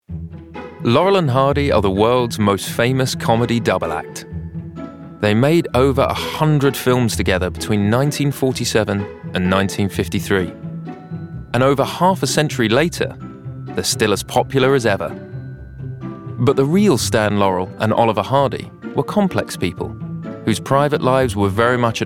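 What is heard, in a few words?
documentary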